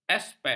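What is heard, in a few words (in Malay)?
"aspek", Malay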